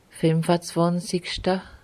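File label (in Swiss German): "fìmfazwànzigschta", Alsatian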